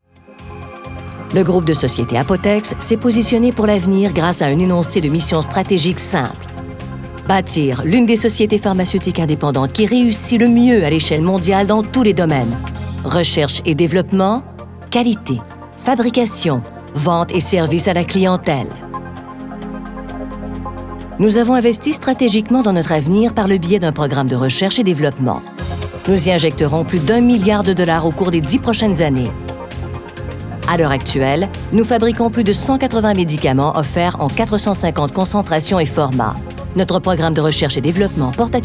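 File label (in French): Voix crédible Narration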